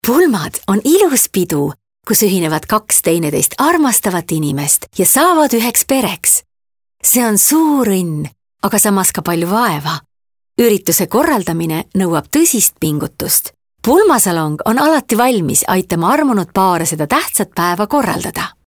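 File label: Estonian female Inspiration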